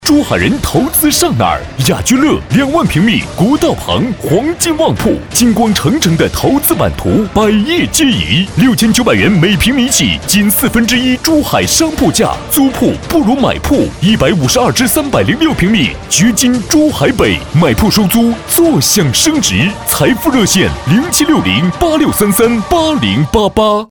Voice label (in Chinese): 男 广告